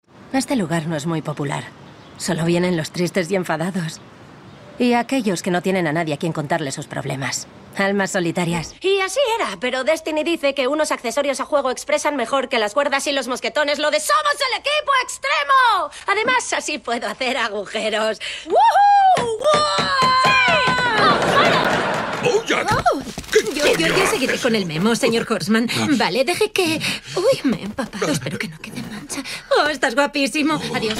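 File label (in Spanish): doblaje España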